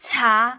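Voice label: "caa2", Cantonese; microsoft Hiu Maan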